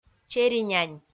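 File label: "черинянь", Komi-Zyrian, female; kpv audio dict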